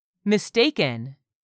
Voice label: "mistaken", English; 3000 words